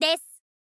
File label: "desu", girl; voice